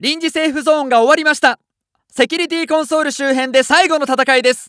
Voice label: Announce 2nd Safe Area Cancel N Ready, JPN; Eternal Return